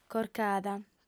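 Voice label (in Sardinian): "corcada", female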